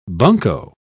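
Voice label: "bunco", British English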